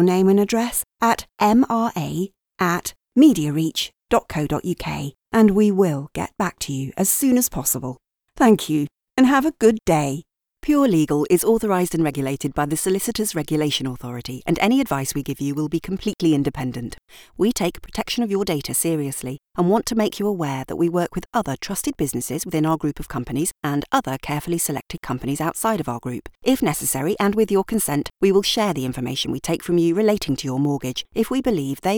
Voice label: IVR on hold